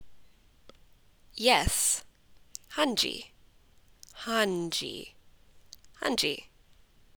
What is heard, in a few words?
"Yes", Punjabi